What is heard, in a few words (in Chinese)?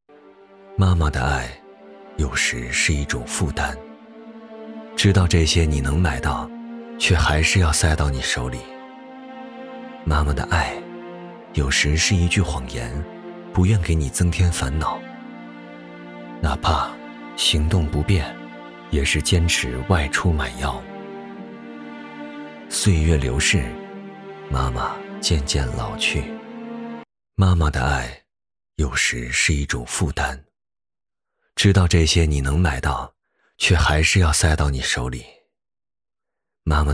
男 广告 抒情 温暖 老年人常规药